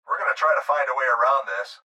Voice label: Pilot Voice Find Way Around